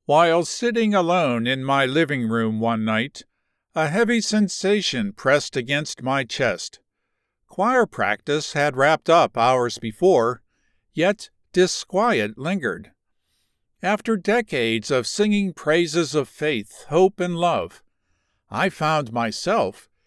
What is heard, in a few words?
Non Fict 1st person religion inspirational, audiobooks